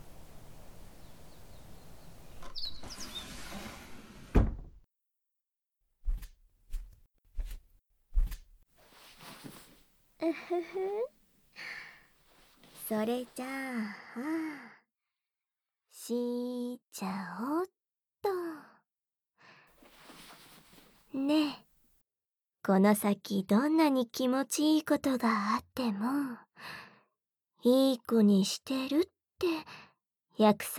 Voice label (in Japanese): 濃厚耳塞ぎ舐め手コキ→お掃除フェラ～カウントダウン射精誘導～（耳塞ぎ舐め 効果音大きめ 射精音なし）; 隠れ宿 夢見亭 乃々佳; 和風癒しASMR